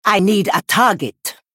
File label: female troll error target